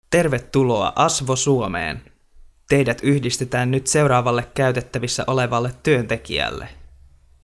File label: greeting, Finsk stemme